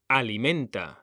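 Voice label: "alimenta", Spanish, male; mekanta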